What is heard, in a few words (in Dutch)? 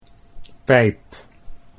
"pijp", Dutch